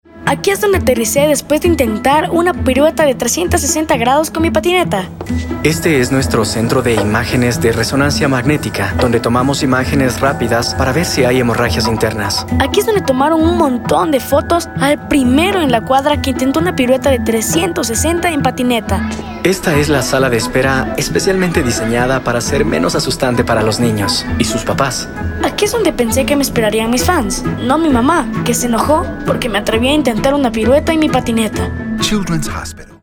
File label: Radio Commercials